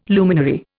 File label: "luminary", Indian